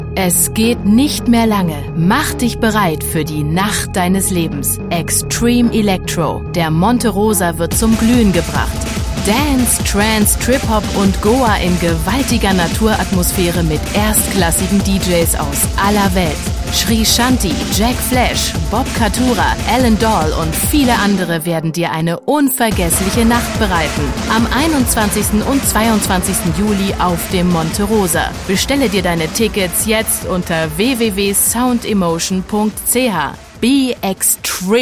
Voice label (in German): dynamisch